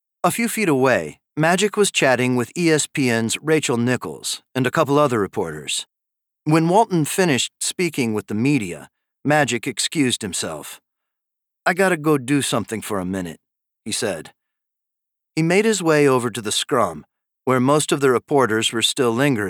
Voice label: Nonfiction Narrative; Hollywood Ending